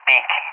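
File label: "Pig", English, SPAIN; CallSign; DCS; Allied Flight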